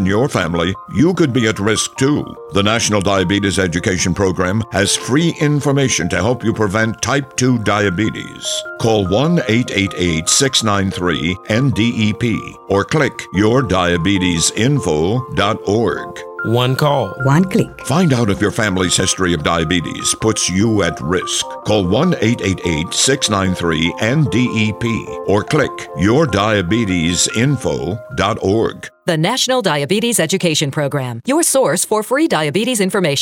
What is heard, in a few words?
voices radio; diabetes